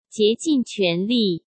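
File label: "竭尽全力", Mandarin Chinese; chinese-flashcards; hsk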